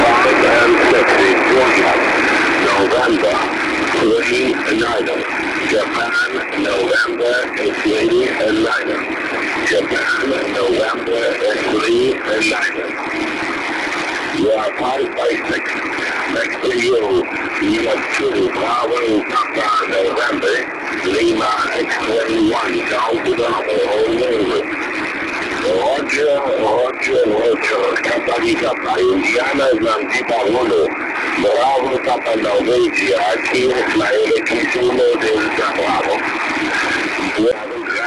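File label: ssb, 1296 EME Recordings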